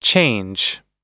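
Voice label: "change", American English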